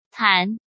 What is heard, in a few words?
"Tan" 1